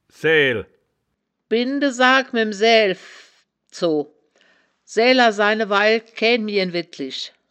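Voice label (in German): "saeael", wittlicher woerterbuch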